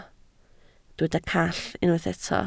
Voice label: "call", Welsh

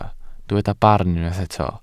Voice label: "barn", welsh

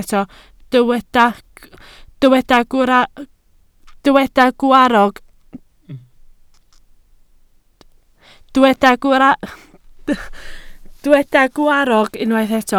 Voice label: "gwarrog", Welsh

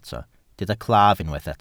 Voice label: "claf", welsh

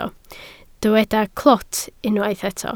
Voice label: "clwt", Welsh

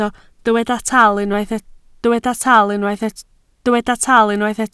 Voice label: "taal", Welsh

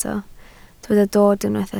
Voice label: "dod", Welsh

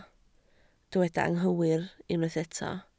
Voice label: "anghywir", Welsh

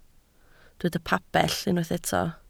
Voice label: "pabell", Welsh

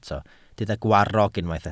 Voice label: "gwarrog", Welsh

